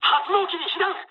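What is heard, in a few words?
Japanese damaged engine mood high; War Thunder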